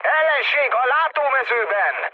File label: enemy detected, speech hun; War Thunder